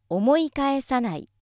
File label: female